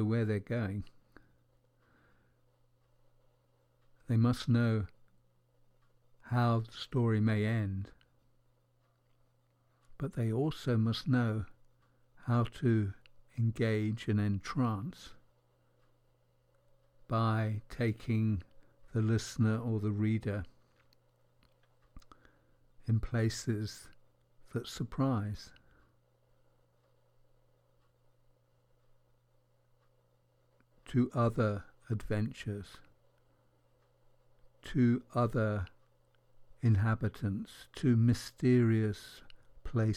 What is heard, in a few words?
storyteller